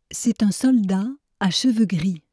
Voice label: soft